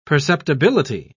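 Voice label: "perceptibility", British English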